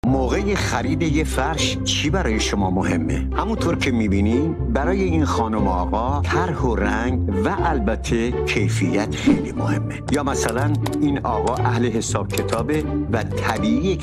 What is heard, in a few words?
narrator